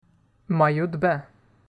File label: "maillot de bain", French